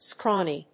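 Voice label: "scrawny", English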